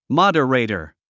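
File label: "moderator", English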